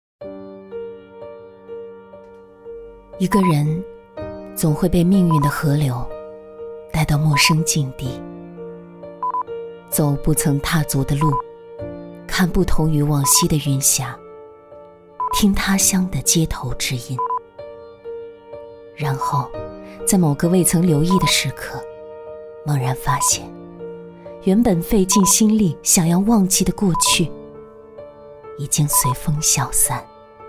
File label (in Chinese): 女 旁白 独立个性态度内心独白